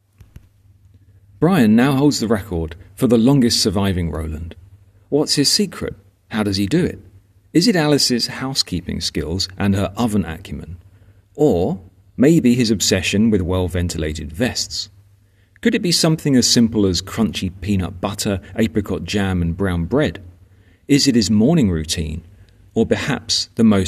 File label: voiceover